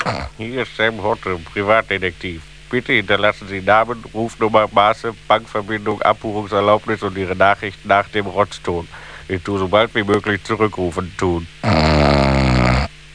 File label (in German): anrufbeantworter